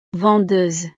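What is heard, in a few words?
"vendeuse", French; profesiones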